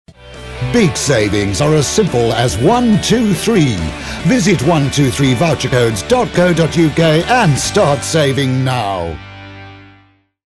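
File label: English British, male, commercial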